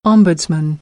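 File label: "ombudsman", American English; AmericanBritish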